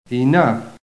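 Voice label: "enough", American English; PHONETIC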